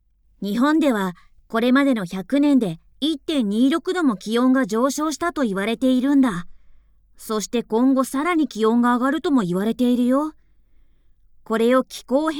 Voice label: japanese